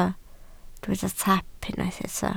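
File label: "taap" Welsh, spoken word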